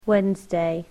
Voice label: "Wednesday", english